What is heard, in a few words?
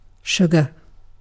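"sugar", British English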